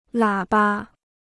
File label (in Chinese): "喇叭", Chinese